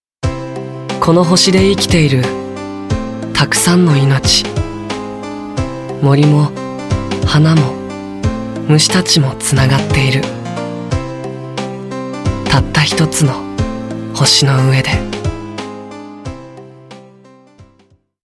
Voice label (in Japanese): ナレーション